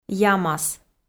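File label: "ямас", Komi, female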